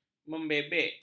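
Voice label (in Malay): "membebek", Malay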